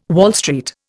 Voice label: "Wall Street", Indian